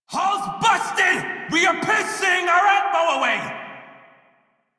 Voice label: Crew See Breach, Male; Dialog; Warframe; Railjack Crew; Red Veil

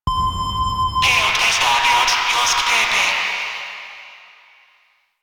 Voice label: Ship Announcer

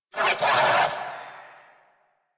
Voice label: Attack Grineer Male; New War; Narmer

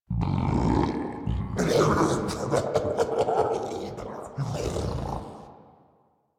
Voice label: Idle Grineer Ghoul Devourer, en

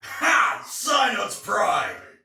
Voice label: See Ally Hit, Soldier Male; Dialog; Barks; Battle; Sinecure

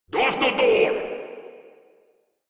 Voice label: Reload, Grineer Male; Warframe

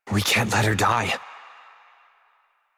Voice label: Operator Voice; Warframe; Jade Shadows Quest

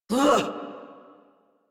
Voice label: Adult Operator, Melee Swing, en; New War

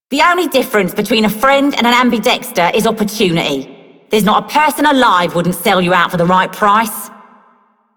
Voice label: Female Worker, Female One, en; Dialog; Warframe; Solaris Venus

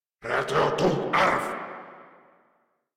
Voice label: Cover Me Grineer Male

